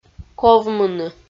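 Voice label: "ковмыны", Komi, female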